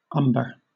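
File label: "umber", British English; pronunciations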